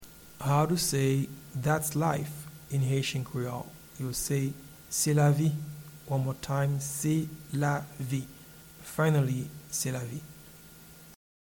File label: Thats life in Haitian Creole Se lavi